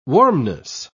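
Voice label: "warmness", English, British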